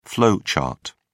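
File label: "flow chart", British English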